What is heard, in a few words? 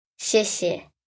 Thank U Mandarin, Etiquette, Foreign, 1 child, 10 yrs., Mandarin - (Thank you), human voice, kid; speak, talk; Talking; Voice Box